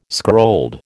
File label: "scrolled", American